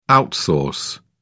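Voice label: "outsource", British English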